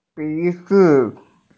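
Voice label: "bizi", Mandarin Chinese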